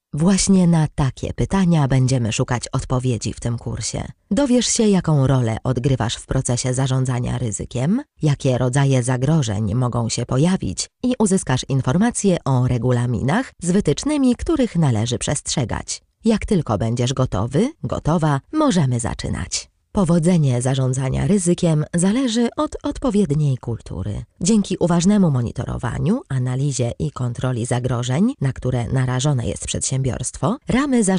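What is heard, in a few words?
Polish, female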